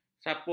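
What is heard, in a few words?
"saput", Malay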